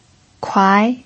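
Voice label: "kuai" second tone, pinyin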